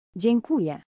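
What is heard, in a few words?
"dziekuje" Polish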